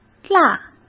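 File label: "辣", Mandarin Chinese; hsk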